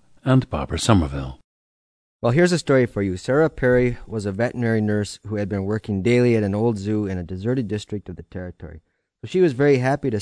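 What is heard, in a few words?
michigan, International Dialects of English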